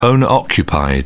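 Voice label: "owner occupied", British English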